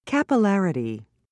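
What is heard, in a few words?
"capillarity", British English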